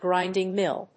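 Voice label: "grinding mill", English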